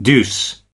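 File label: "deuce", US English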